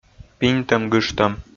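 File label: "пиньтӧм-гыжтӧм", Komi, male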